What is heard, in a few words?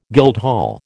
"guildhall", American